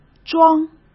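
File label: "装", Mandarin Chinese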